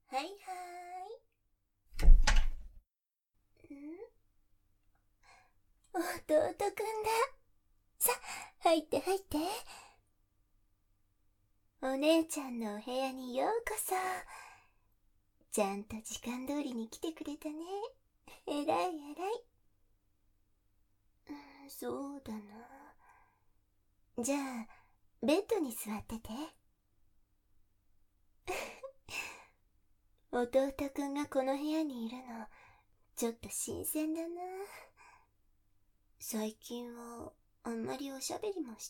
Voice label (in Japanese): 囁き乳首責め・舌で開発 左右逆; あまあま囁き乳首開発 ～あまあまなお姉ちゃんに乳首の快感で堕とされる～